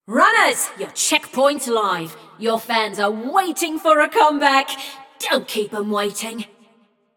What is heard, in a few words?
Checkpoint Opened, Announcer; Flux; Scraprun